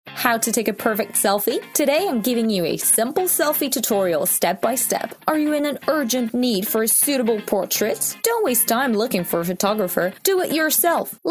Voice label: american